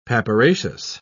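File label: "papyraceous", British English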